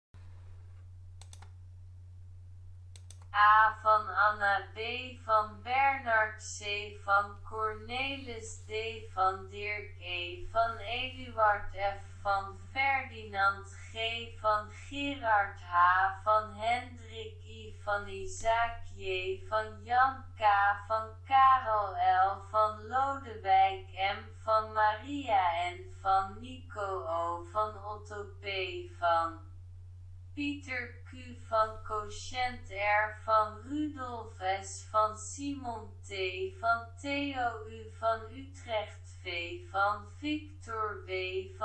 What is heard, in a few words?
Learn Dutch Phone Alphabet